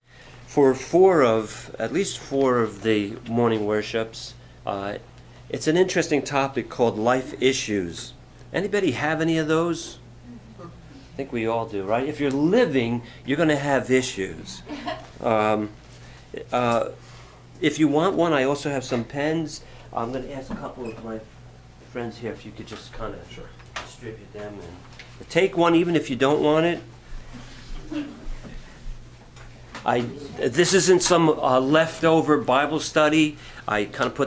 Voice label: Message- Anger